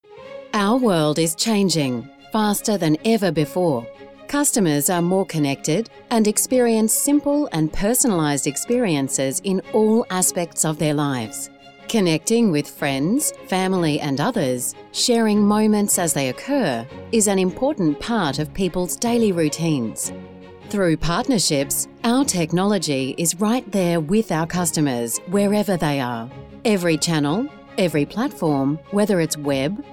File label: Authoritative Educated, voiceover